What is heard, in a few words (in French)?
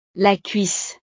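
"la cuisse", French; partes del cuerpo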